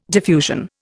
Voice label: "diffusion", Indian

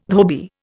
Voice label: "dhobi", Indian

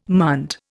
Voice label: "munt", Indian

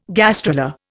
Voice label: "gastrula", Indian

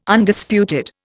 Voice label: "Undisputed", Indian

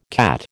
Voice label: "CAT", American

